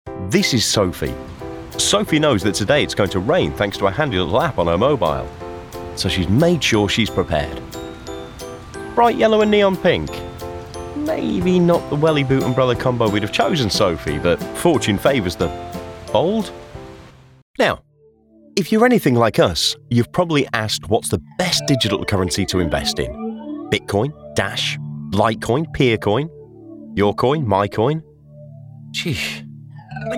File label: Explainer Conversational